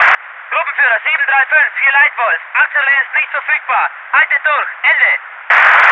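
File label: voice german infantry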